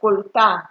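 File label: "coaltar" Nigerian Pidgin, female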